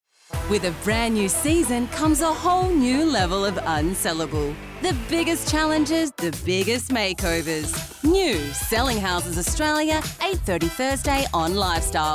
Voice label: TV Promos

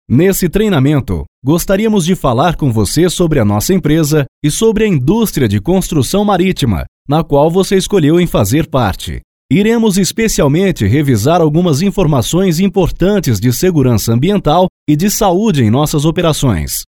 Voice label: Training PT-BR

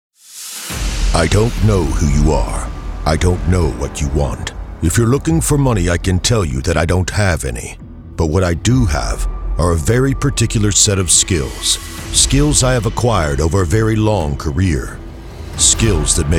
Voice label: Voice Mail

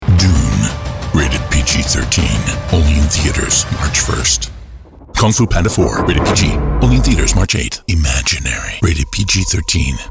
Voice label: edgy trailers

